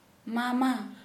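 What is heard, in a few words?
uncle, Santali, female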